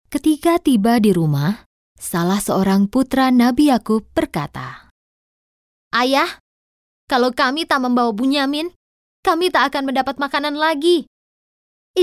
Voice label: indonesian audiobooks

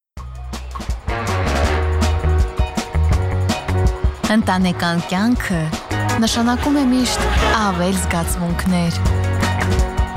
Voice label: armenian commercial

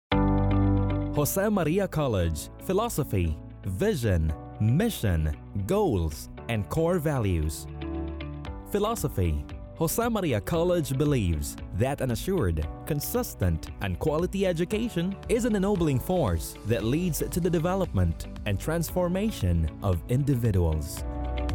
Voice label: english

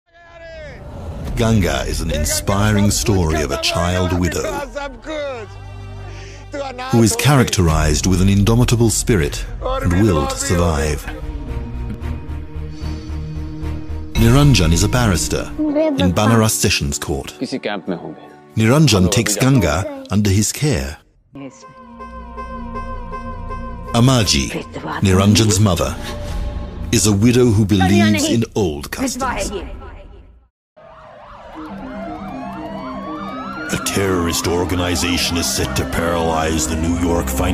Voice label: english british movie trailers

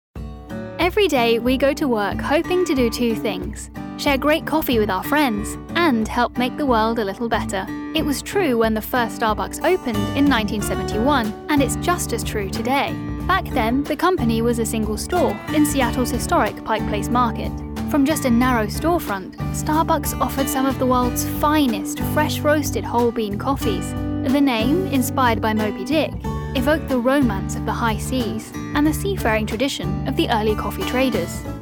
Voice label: English British corporate videos

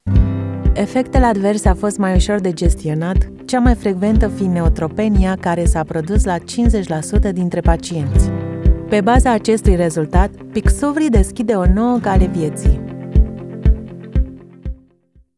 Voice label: romanian others

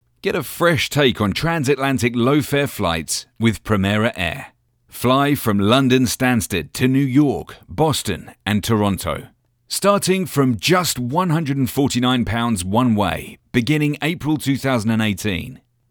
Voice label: english british promos

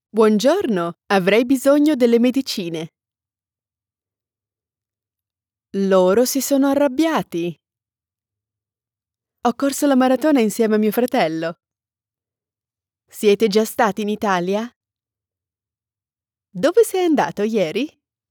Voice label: Italian e-learning

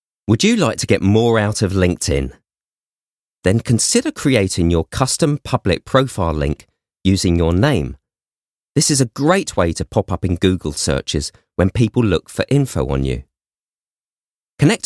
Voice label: English British e-learning; native English actor and voiceover originally from London, but now living in Ghent

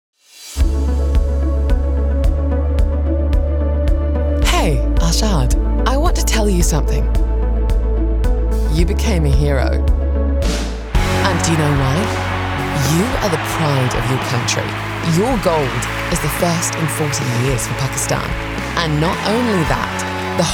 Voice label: english british promos

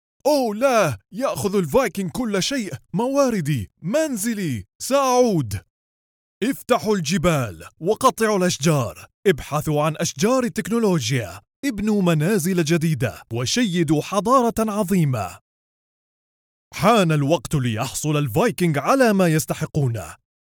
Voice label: arabic video games

